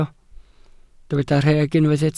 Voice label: "rheg", Welsh